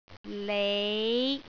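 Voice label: "lei5", Cantonese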